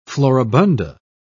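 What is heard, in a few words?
"floribunda", British English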